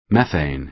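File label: "methane", American English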